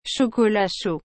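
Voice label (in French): "chocolat chaud", French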